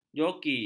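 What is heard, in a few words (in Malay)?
"joki", Malay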